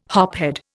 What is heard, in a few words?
"hophead", Indian